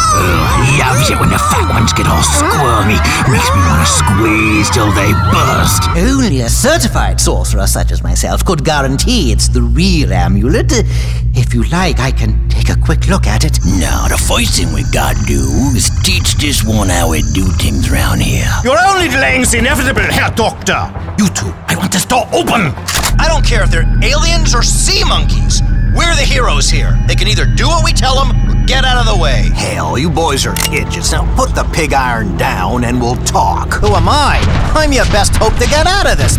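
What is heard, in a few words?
animation male, Voice Over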